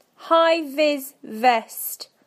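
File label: "hi vi vest", British English; PictureDictionary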